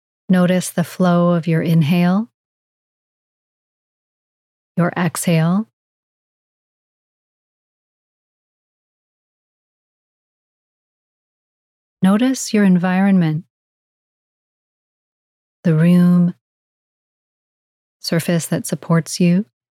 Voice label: MINDFULNESS MEDITATION Exploring Awareness Sleep; Oura